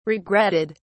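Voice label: "regretted", British English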